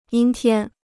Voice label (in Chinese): "阴天", Chinese